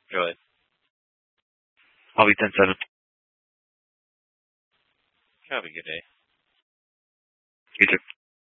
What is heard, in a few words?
Mesa PD Dispatch